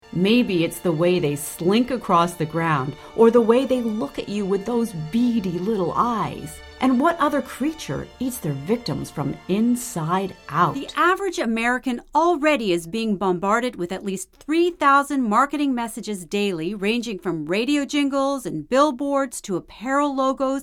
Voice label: Narration VO